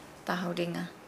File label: Nukuoro; custom or habit